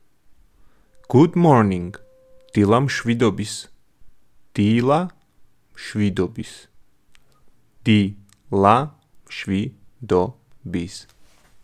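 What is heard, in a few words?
Good morning, Georgian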